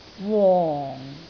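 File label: "wong4", Cantonese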